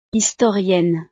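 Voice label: "historienne", French; profesiones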